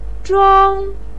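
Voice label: "zhuang1", Mandarin Chinese pinyin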